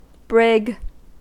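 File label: "brig", US English; pronunciations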